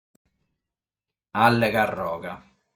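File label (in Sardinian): "Allecarroga", Sardinian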